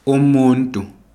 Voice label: "umuntu" person, ndebele